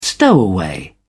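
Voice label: "stowaway", British English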